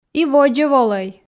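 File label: "и водзӧ волӧй", Komi, female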